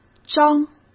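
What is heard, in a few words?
"张", Mandarin Chinese; chinese-flashcards; hsk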